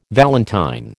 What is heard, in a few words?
"valentine", American